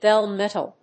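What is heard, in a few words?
"bell metal", English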